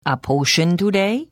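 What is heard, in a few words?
Service, Khajiit female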